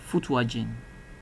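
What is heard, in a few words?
"footwagen", Nigerian Pidgin, male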